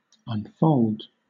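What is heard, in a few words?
"unfold", British English; pronunciations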